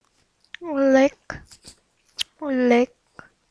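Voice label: "lick", spoken word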